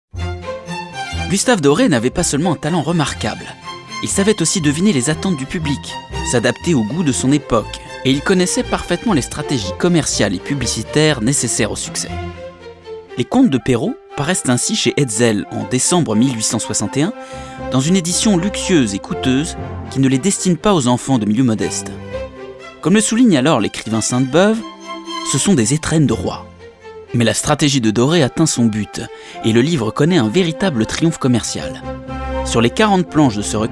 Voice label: Audioguide; Gustave Dore